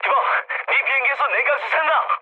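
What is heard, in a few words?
Korean damaged water mood med, speech; War Thunder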